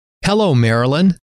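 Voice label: "hello", male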